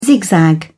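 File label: "zigzag", British English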